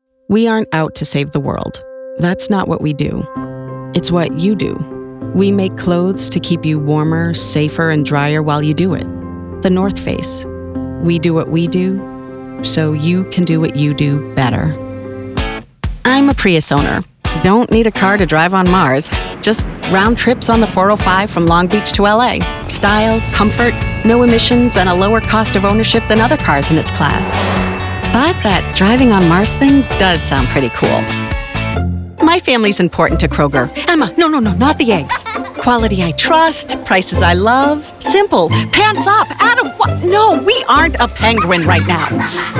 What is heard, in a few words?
Commercial